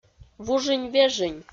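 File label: "вужынь-вежынь", Komi, female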